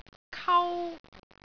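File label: "kau1", Cantonese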